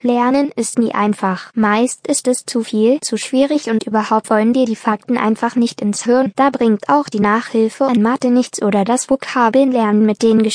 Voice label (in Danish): Tysk barn